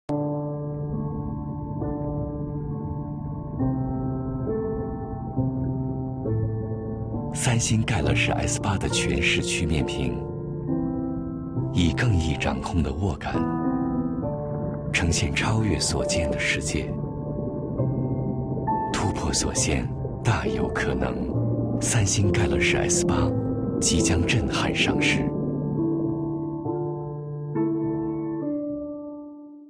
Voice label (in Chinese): 男, 广告; 三星GALAXY盖乐世